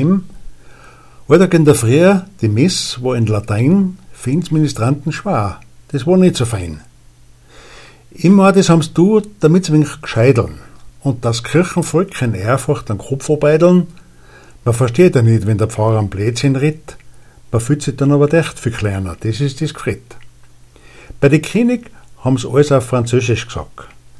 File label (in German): Insa Mundart